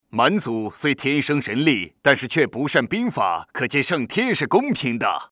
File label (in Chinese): 男 游戏角色配音